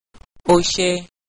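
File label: thanks, Yoruba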